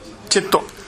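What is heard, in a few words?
"chetto", Minamiyamagata dialect Japanese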